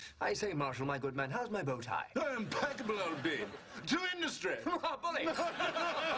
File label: British; Himym